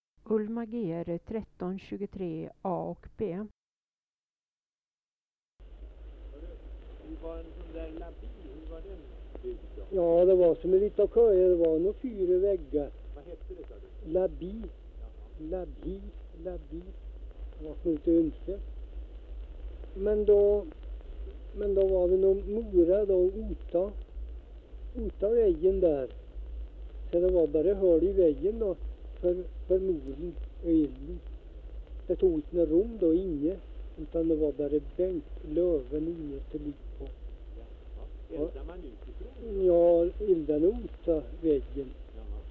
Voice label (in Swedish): Hede, dialekter, sagor; Folkminnen